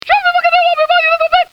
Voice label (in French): Débile, Cartoon; bruitage